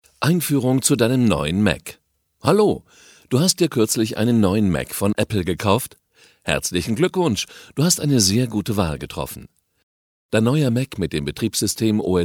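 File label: German elearning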